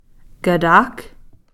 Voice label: "katakh", on top of

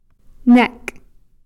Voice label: "nekh", who

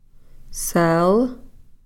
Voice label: "seel", Cow Creek; kingfisher

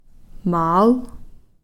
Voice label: "maal", salmon spear shaft

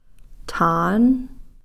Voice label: "thaan", Cow Creek

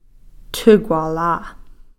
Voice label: "thkwalaa", hooting owl

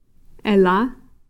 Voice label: "ela", tongue

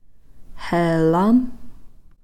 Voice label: "heelam", Cow Creek; board

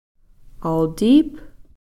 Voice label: "altiiph", purple

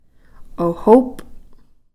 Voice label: "ohoph", Cow Creek; black shell

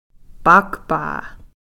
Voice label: "pakhpaa", Cow Creek

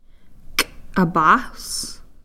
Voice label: "k'apas", porcupine quills

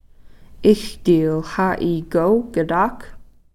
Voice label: "ixtiil-ha'iiko-katakh", nineteen

